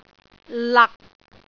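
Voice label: "lak6", Cantonese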